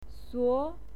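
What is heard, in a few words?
"suo", Mandarin Chinese, second tone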